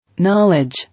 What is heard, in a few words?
"Knowledge", English